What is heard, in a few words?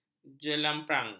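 "jelamprang", Malay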